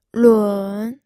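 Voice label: "lun", third tone, pinyin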